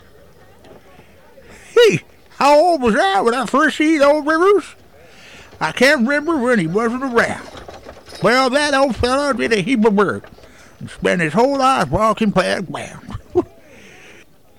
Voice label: Western Old Timer